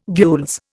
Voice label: "gules", Indian